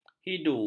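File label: "hidu", Malay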